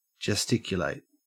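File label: "gesticulate", Australian English; pronunciations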